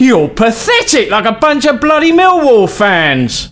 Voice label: Taunt, male; svencoop